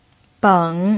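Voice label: "beng", pinyin, third tone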